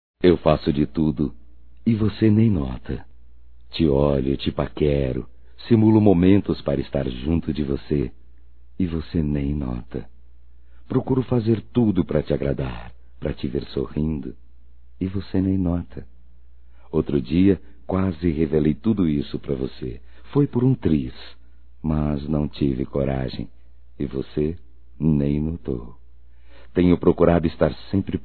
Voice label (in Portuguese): AMOR NÃO CORRESPONDIDO, Telemensagens, Românticas, masc